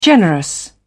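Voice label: "generous", British English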